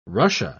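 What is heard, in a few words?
"russia", British English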